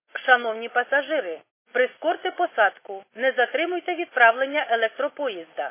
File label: khs st female, subway station